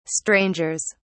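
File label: "strangers", British English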